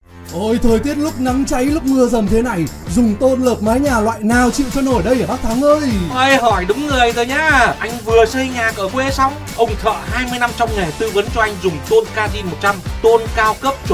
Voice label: Giong Dien Thoai; Ton Kazzinc 100

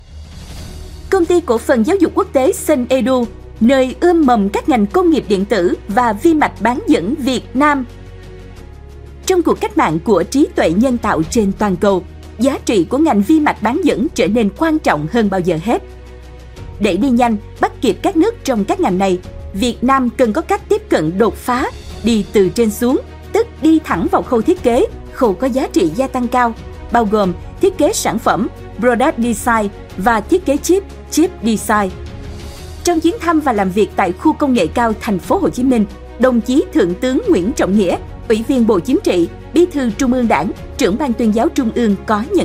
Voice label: Voice MC Nu Mien Nam Truyen Cam Radio; Video Doanh Nghiep Cong Ty Giao Duc

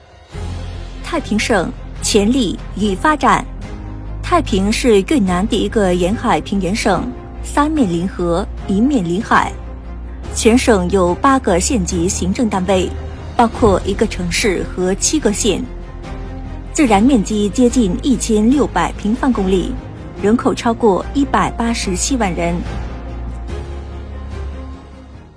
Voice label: Tiếng Trung; Xuc Tien Thuong Mai Thai Binh

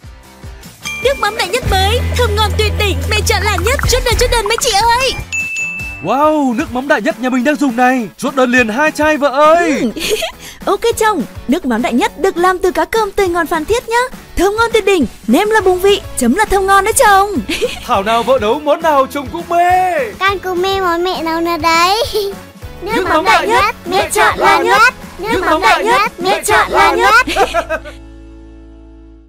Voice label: Giong Dien Thoai Vo Chong Mien Bac; Nuoc Mam; Nuoc Mam Dai Nhat

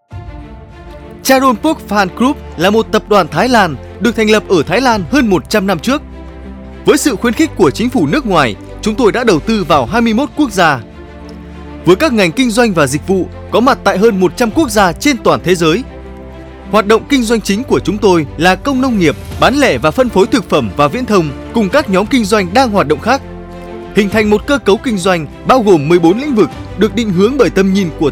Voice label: thu am nam cao; CP Group

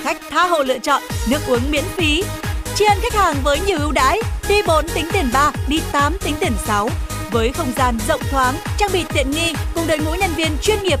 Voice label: Thu Am Quang Cao Thu am phat loa uu dai Nha hang buffet Radio Ad; happy House

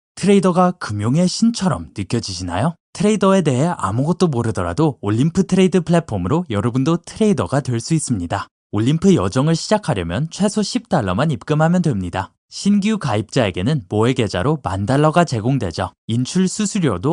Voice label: tieng Han giong nam ban ngu; Thu am tieng Han giong mau nam ban ngu

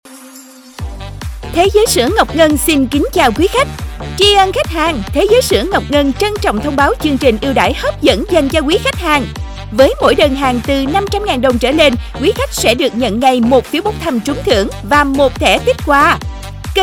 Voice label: GIONG NU MIEN NAM; THE GIOI SUA NGOC NGAN

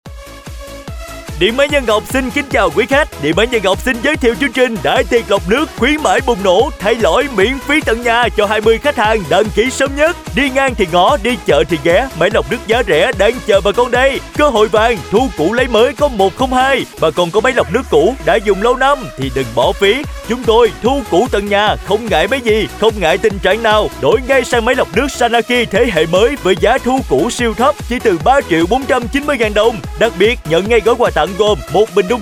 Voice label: thu am quang cao; Dien may Nhan Ngoc

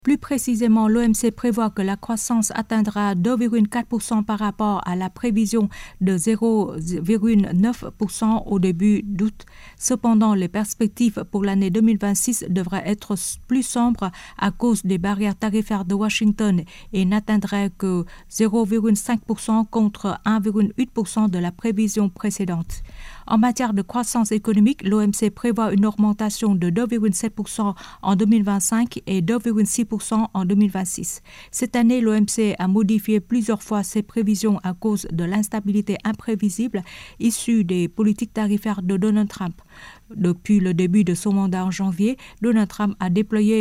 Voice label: Tieng Phap Voice nu thu hut

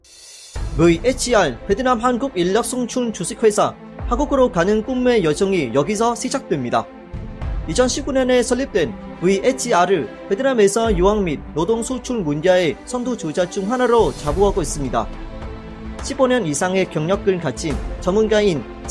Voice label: Cong Ty Du Hoc Sinh Voice Tieng Han; VHR; thu am da ngon ngu

